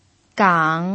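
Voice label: "gang", Mandarin Chinese, third tone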